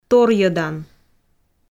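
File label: "торйӧдан", Komi, female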